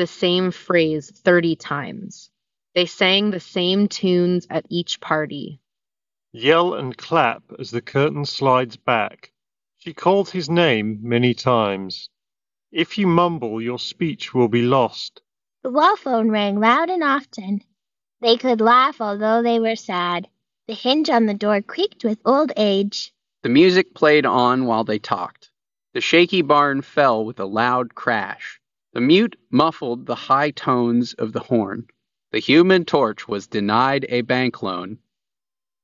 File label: Nothing Ear Stick Ideal microphone sample